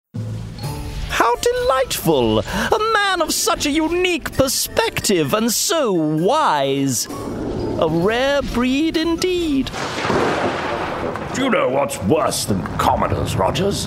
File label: Animation Character